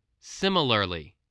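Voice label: "similarly", spoken word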